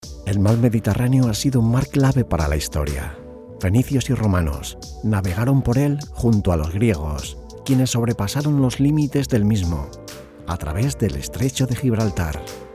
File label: dubbing documentary